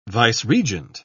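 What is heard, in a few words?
"vice-regent", British English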